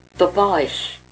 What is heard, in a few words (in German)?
"barch", erzgebirgisch